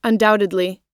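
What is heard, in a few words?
"undoubtedly", American English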